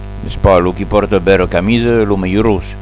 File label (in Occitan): "bera camisa", Gascon